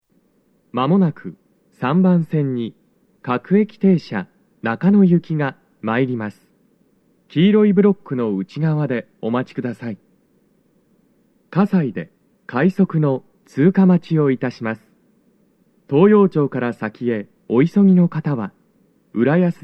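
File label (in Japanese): approach announcement, 接近放送 男声; 放送は「各駅停車 中野行」です。葛西で、快速 中野行きの通過待ちを致します。; 収録者:こたけ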